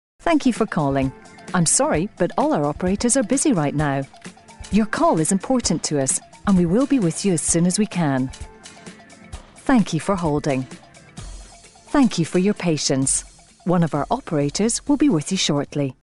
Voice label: ivr